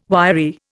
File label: "wiry", Indian